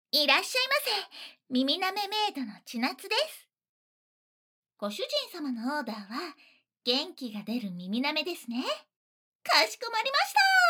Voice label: いらっしゃいませ, ASMR

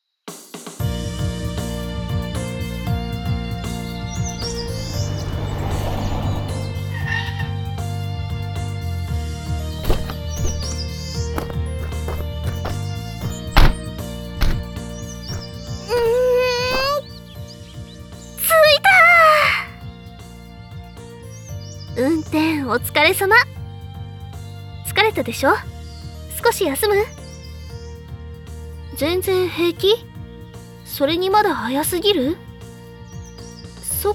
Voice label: 散策, ASMR

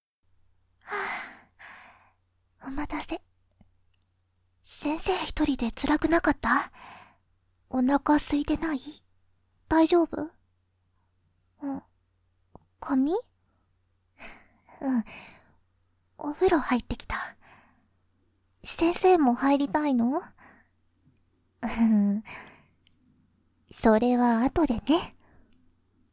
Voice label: 密やかなお泊り (右耳)

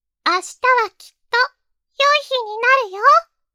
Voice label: 励ましボイス

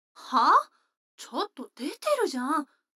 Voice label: 女の子台詞

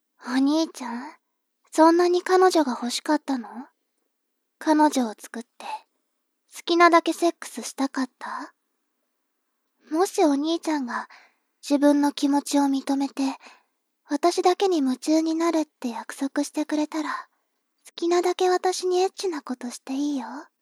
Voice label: 妹 台詞

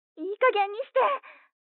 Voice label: 彼女の電話台詞, ASMR

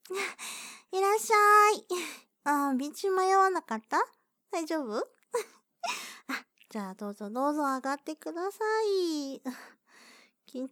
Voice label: アドリブボイスドラマ モノラル; 貴方をお出迎え

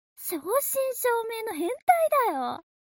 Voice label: mob女子台詞

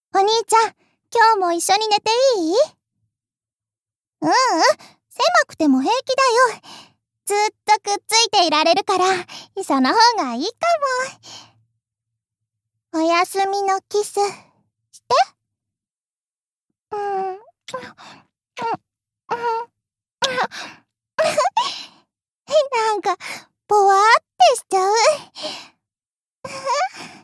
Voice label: 長女 おやすみボイス

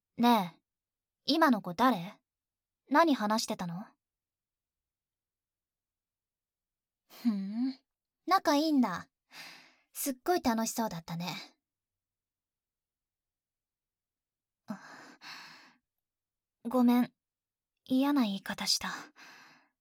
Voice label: 恋人ボイス 女子高生

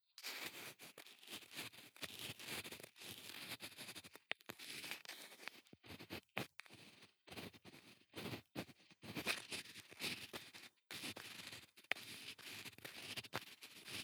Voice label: うっとり耳かき（左）（秒針音無し）; お屋敷づとめ。Vol.5―一之瀬梨蘭 リラクゼーション岩盤浴―

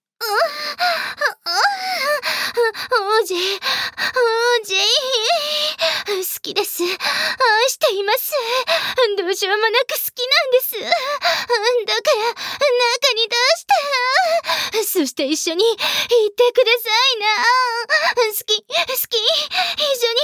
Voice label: トドメボイス

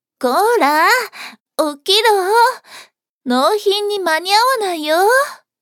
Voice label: 幼馴染, 幼馴染の女の子

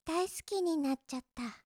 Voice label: 大好きになっちゃった, 恋人に甘える口調

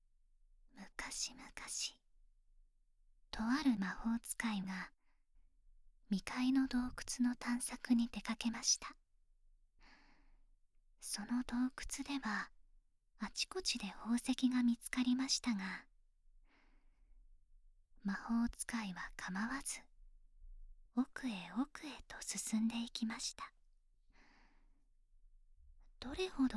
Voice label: おまけの朗読オンリートラック 左

